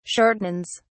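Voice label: "shortens", British English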